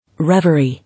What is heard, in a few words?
"Reverie", English